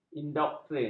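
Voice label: "endokrin", Malay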